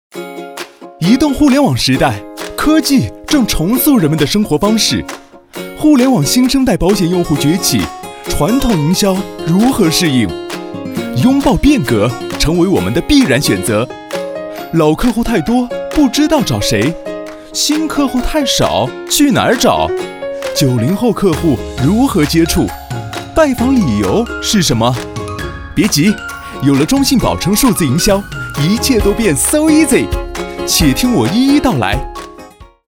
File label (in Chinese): 广告, 轻快拥抱变革